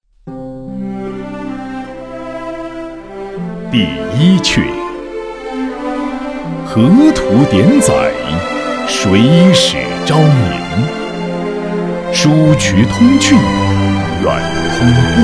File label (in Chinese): 男,朗诵; 开漕赋